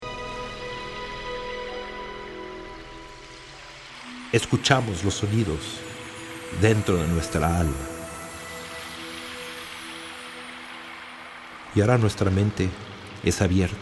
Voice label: Spanish Documentary